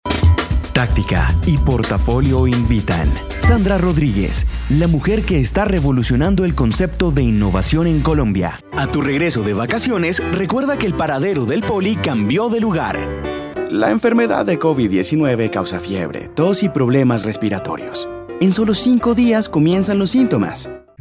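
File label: comercial, Colombian Spanish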